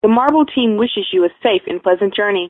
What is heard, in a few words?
American English; marble